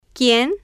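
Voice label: "quien", Spanish, female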